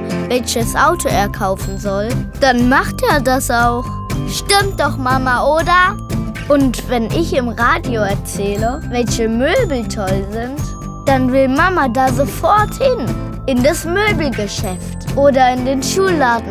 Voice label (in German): Werbung Kinderstimmen